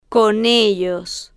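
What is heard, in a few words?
"con ellos", Spanish, female, slow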